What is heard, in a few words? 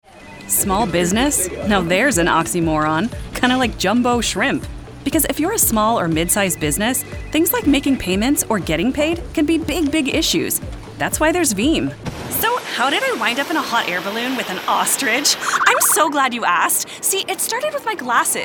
Voice Actor Commercial